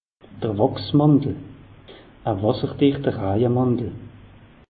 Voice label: "wachs mantel", alsace; meteo

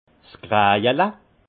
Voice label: "krajel", alsace; vin

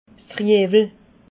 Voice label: "riewel", alsace

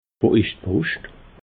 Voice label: "woischdposcht", alsace

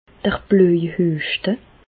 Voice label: "blojhueschte", Bas Rhin; medecine; coqueluche

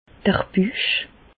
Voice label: "buch", Bas Rhin; medecine; ventre

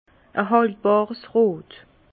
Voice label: "haltbarsrot", Bas Rhin; vin; rouge soutenu

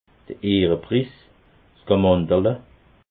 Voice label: "ehrepris", alsace

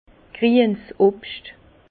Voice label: "griens", Bas Rhin; fruits verts; vin

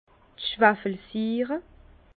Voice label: "schwafelsiire", Bas Rhin; vin; acide sulfurique liquide

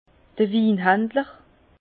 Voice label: "winhandler", Bas Rhin; vin; négociant

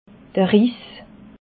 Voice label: "riis", alsace

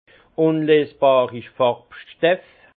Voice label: "farbstef", alsace; vin; matières colorantes insolubles

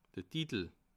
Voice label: "titel", alsace

